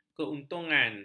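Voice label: "keuntungan", Malay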